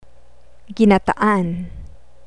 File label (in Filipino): "ginataan", Tagalog